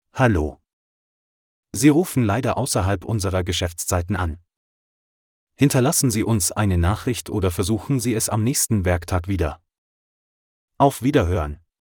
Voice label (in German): ausserhalb VM MALE